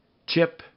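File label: "chip", English; Rhyme Test; hit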